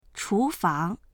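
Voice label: "chu2fang2", Mandarin Chinese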